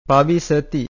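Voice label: "pavisati", Pali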